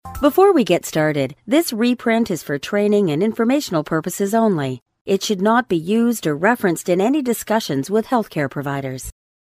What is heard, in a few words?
Training intro, narration